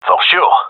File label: agree, gendarmerie male; vo